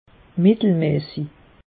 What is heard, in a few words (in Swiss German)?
"mittelmassig", alsace; meteo